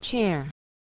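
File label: "chair", English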